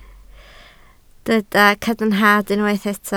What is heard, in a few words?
"cadarnhad", Welsh